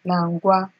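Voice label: "langua", Nigerian Pidgin, female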